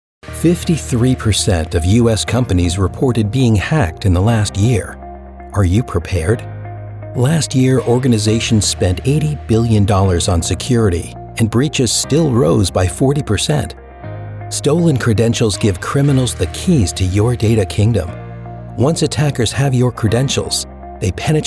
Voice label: Explainer Video; Secure Auth

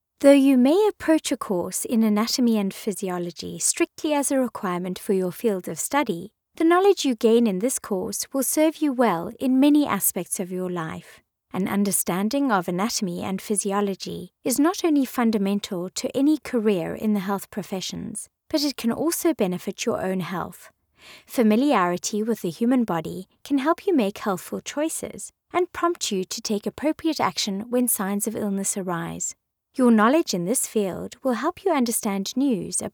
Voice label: medical narration

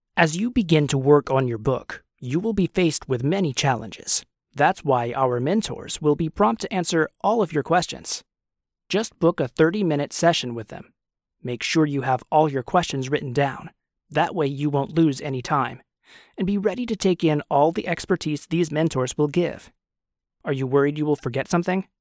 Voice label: educational

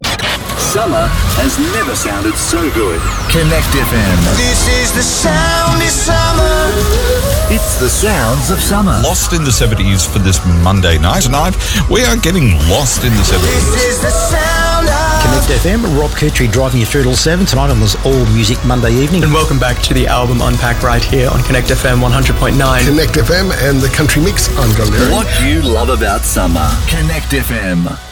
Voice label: Promo; Connect FM Sound of Summer

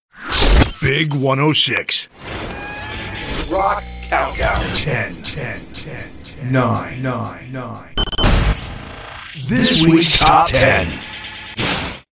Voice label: countdown promo

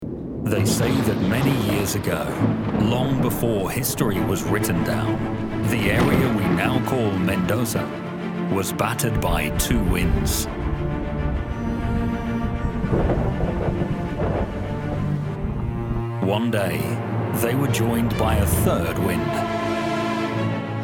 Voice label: wine commercial; Trivento

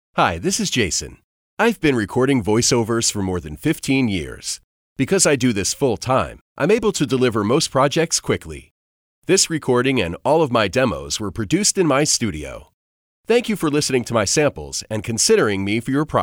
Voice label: Studio Sample; Voice Realm

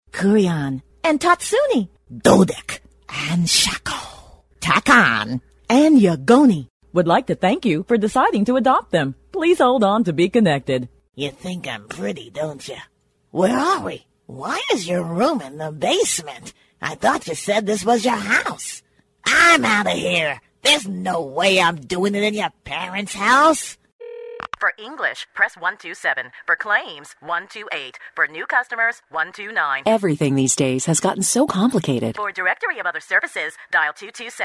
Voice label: Sample Character Voices

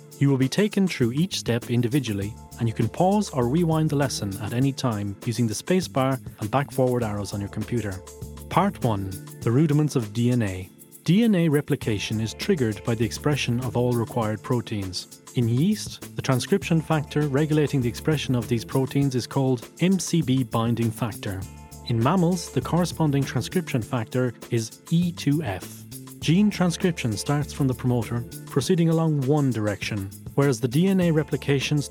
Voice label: e Learning

